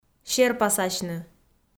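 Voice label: "серпасасьны", Komi, female; kpv audio dict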